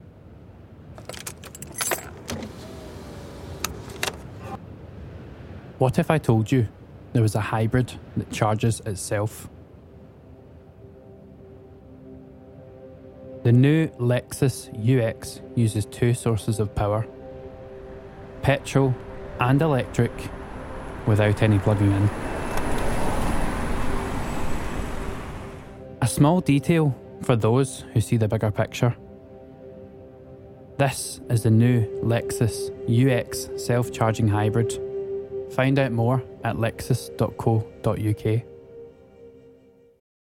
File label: Commercial